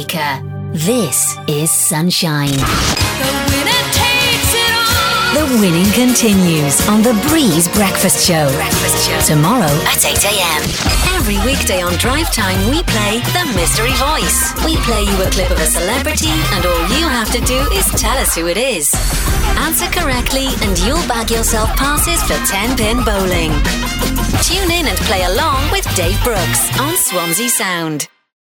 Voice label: Radio Imaging Promos